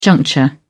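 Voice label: "juncture", British English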